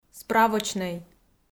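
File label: "справочнӧй", Komi, female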